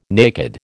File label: "naked", American